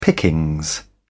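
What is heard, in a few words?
"pickings", British English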